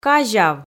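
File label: "казяв", Komi, female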